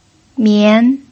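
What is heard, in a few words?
"mian", second tone, Mandarin Chinese pinyin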